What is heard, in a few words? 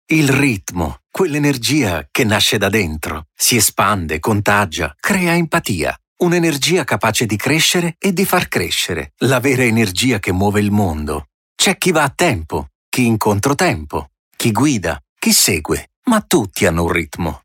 italian male voice events